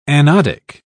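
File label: "anodic", British English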